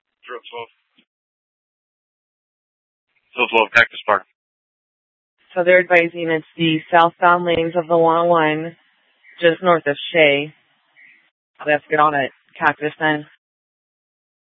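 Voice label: police dispatch, Scottsdale PD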